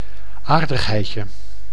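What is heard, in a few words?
"aardigheidje", Dutch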